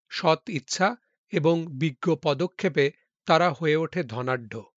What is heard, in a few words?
Male